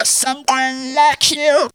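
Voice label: "someone like you", Funky Vocals, girls; USB Soundscan vol.18; AKAI; TB GIRLS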